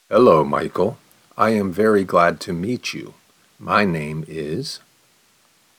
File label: response slow